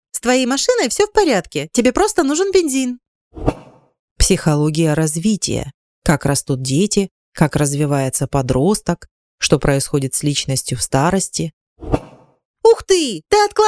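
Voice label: female russian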